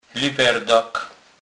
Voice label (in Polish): "lywerdak", kurpiow